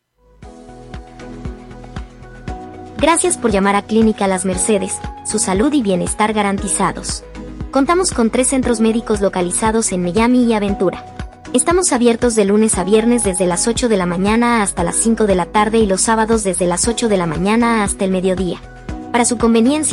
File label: Spanish female; voice talent demos